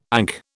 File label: "ankh", British